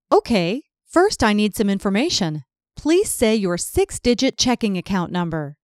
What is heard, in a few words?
OK say acct no, IVR